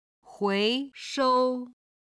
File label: "hui2shou1", chinese